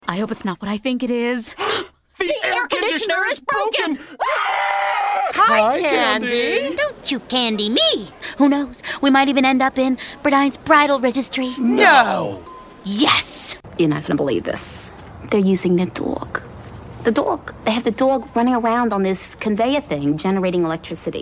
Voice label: COMEDY COMMERCIAL